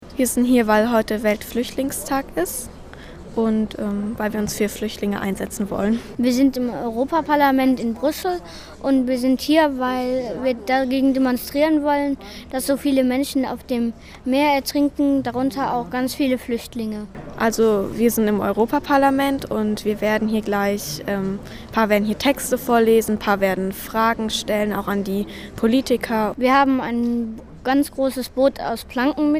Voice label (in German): Kinder erklaeren; Leben retten Bruessel